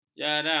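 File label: "jaram", Malay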